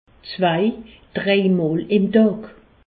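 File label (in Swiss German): "zwei", Alsace, Haut Rhin; medecine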